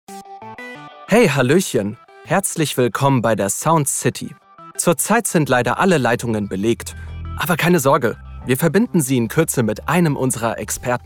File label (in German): Mailbox Freundlich; LOFT VOICES